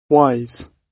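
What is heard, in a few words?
"wise", British English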